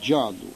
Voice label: "giallu", lingua corsa

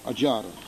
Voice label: "agiara", lingua corsa

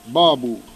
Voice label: "babbu", lingua corsa

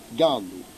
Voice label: "gallu", lingua corsa

